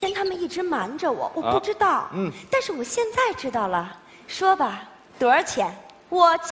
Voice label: drama